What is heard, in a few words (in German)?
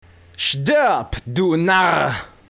Narr; Stirb, du Narr!